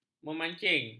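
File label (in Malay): "memancing", Malay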